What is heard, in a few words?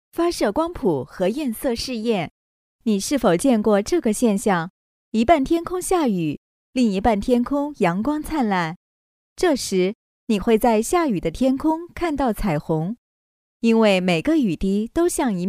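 Mandarin Chinese, female, voice over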